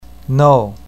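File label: "nou4", Chinese pinyin, fourth tone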